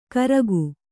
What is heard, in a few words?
"ಕರಗು", kannada